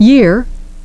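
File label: "year", English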